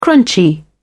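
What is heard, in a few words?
"crunchy", British English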